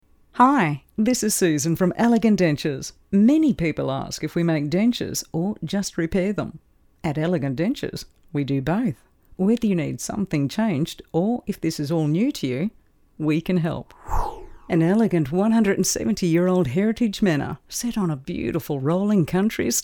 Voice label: Corporate Friendly

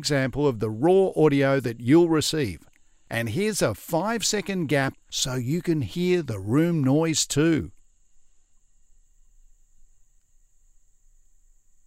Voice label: Studio Sound Check

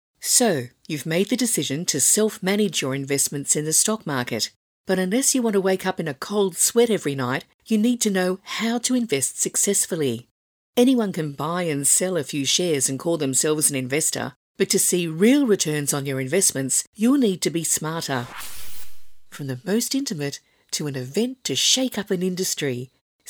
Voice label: Corporate Friendly

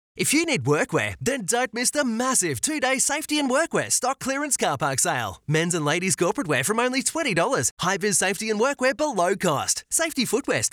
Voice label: Hard Sell

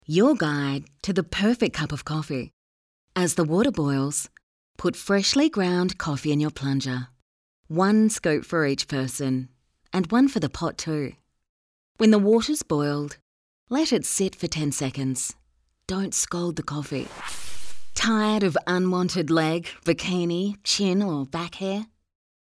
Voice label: Relaxed

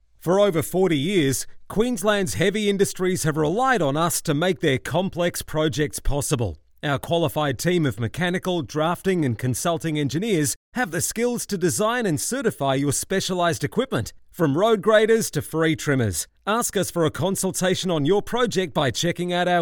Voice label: Aussie Tradie

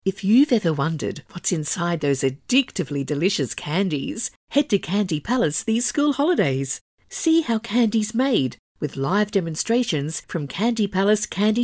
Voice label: Warm Friendly; Fun; Mature

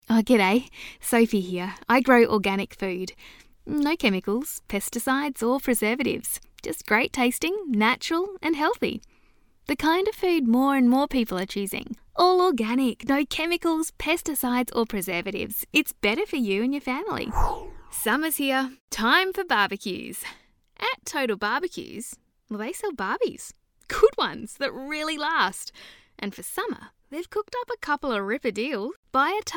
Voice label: Conversational

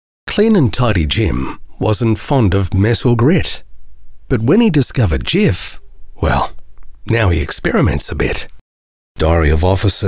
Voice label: Natural Style

